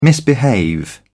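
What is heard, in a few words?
"misbehave", British English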